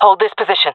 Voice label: request hold, jungle fem; player vo jungle fem; Counter Strike Model Pack; CS:GO